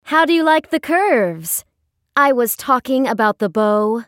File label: taunt, en US; Ashe